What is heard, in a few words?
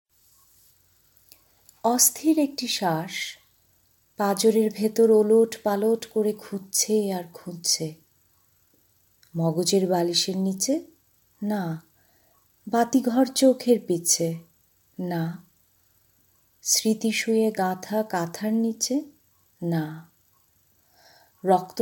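Bengali, General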